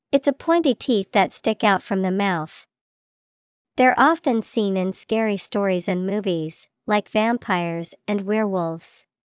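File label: fangs, English vocabulary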